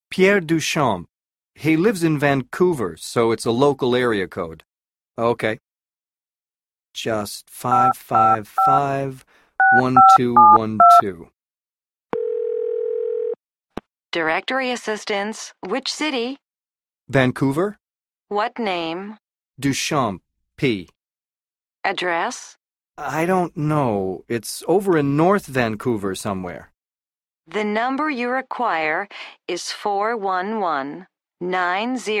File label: Telephone, english listening survival